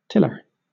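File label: "tiller", British English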